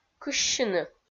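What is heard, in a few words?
"кыссьыны", Komi, female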